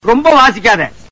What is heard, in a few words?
comedy dialogue; koundamani